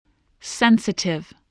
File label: "sensitive", english spelling